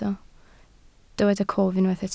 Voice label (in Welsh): "cof", Welsh